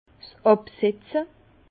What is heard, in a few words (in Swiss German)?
"absetze", Bas Rhin; vin; sédimentation